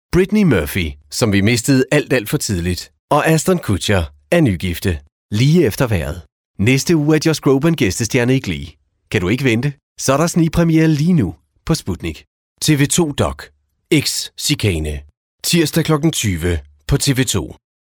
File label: Continuity Program Presentation